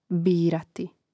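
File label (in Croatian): "birati", Hrvatski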